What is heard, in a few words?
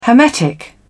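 "hermetic", British English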